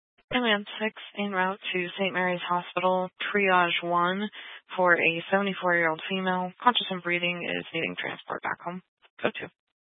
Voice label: Fire Department Dispatch, scanner; Grand Junction